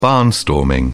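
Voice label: "barnstorming", British English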